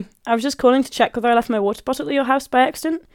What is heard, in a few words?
Voice Message